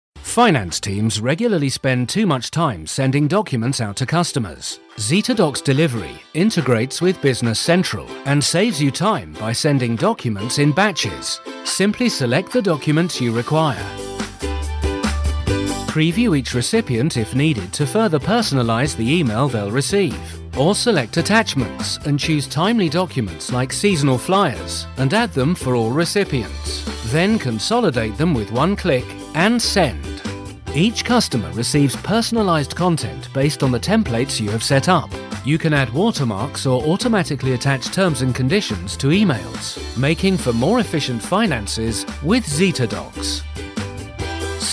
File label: corporate light